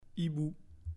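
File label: "Hibou", French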